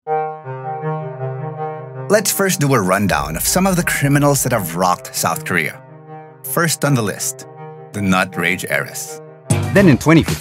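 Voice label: English Corporate Narration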